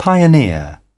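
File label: "pioneer", British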